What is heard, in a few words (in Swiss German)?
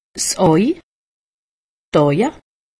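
"au", Haut Rhin; medecine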